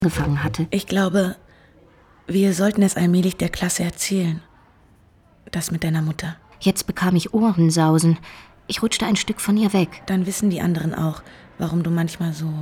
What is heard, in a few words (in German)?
hoerspiel; sophia